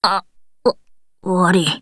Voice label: sorry, voice commands; svencoop; Blanc